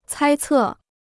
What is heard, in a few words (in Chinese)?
"猜测", Chinese